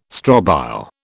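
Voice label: "strobile", British English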